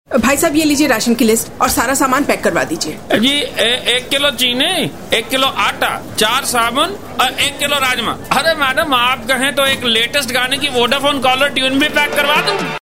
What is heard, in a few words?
character, female voice